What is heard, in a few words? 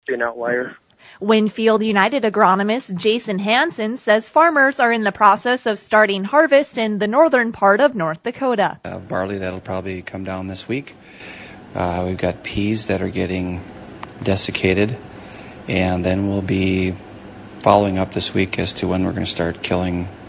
air check; WinField United